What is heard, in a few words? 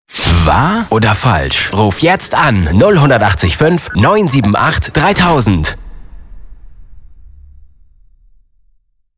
station voice (dropper)